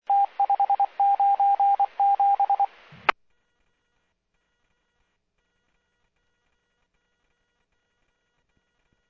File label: Medevac, scanner; NativeAirAmb